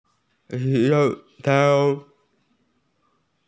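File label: "gloves", Mandarin; shou3tao4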